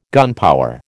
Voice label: "gunpower", American